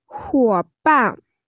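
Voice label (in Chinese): "huo3ban4", Mandarin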